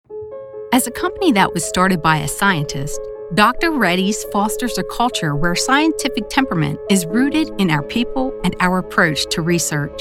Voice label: English American Medical